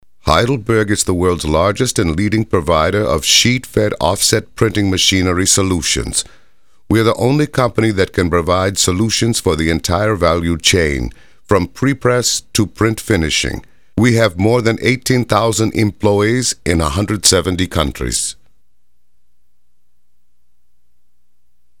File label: German Accent; foreign languages